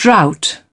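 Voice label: "drought", British English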